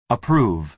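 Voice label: "approve", American English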